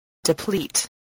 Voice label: "deplete", English

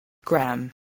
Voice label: "gramme", spelling

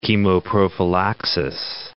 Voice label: "chemoprophylaxis", English

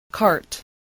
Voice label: "cart", spelling

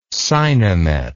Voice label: "sinemet", words

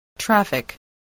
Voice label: "traffic", spelling

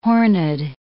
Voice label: "horned", English

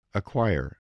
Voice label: "acquire", English voice

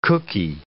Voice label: "cooky", English

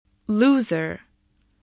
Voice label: "loser", spelling

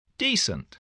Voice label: "decent", English

